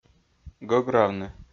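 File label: "гӧгравны", Komi, male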